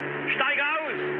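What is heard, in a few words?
German bailout mood low, speech; War Thunder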